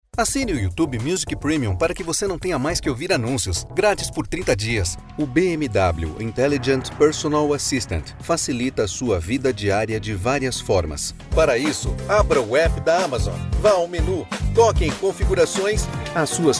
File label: Commercial, brazilian portuguese voice